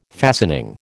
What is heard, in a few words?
"fastening", American